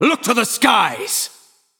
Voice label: Male Nightborne Attack